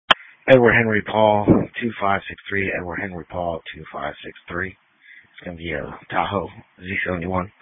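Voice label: Police Patrol (Dispatch)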